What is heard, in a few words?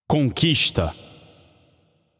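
Announcer Brazilian Portuguese Game Mode Conquest; paladins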